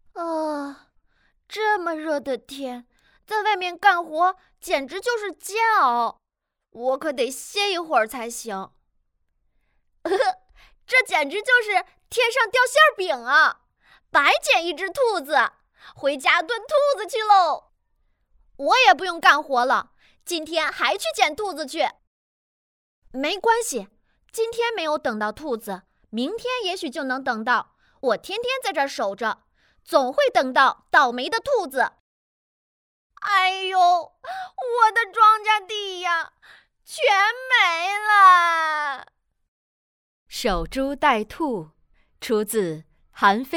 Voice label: 男童音

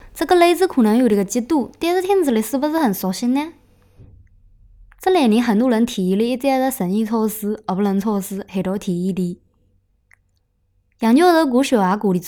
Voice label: 江苏盐城方言

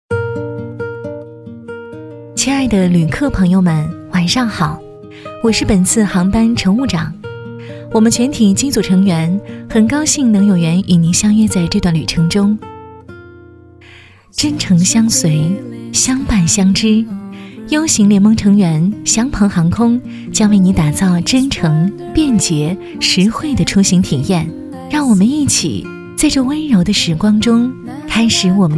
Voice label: 航空班机晚间播音

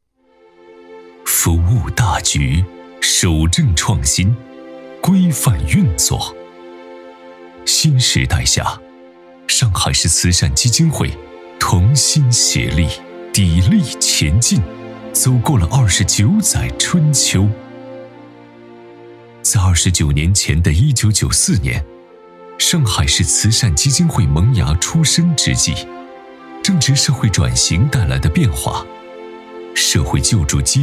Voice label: 回顾片, 真人商业配音 男; 上海市慈善基金会

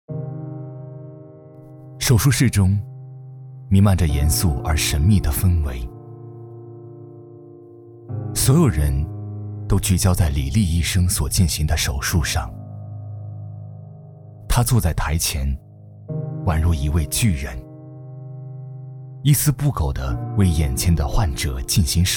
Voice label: 人物解说低沉严肃; 医生

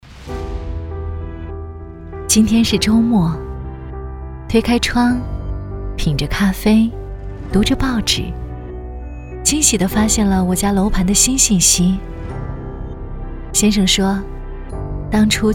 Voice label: 地产广告

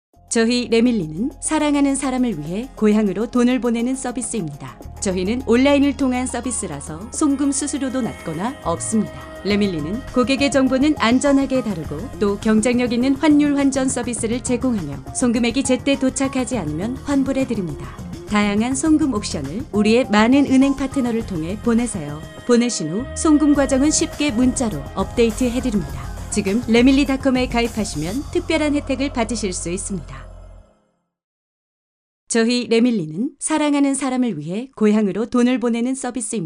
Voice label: 韩语女广告宣传换汇广告

